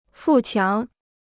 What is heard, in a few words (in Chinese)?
"富强", Chinese